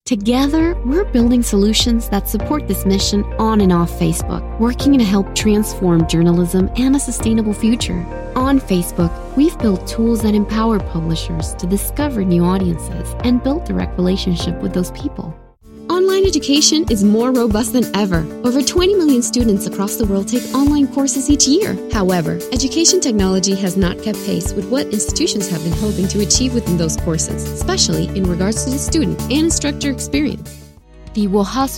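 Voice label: English Narration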